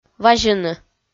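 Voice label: "вазьыны", Komi-Zyrian, female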